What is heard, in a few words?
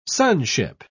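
"sonship", British English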